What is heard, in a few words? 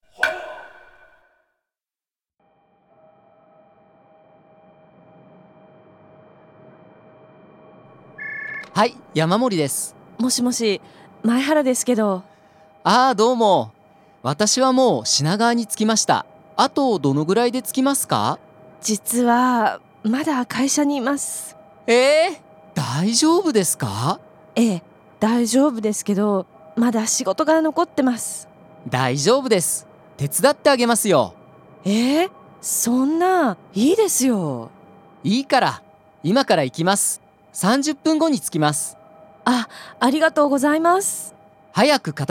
dialog; JapanesePod101; Beginner Season 1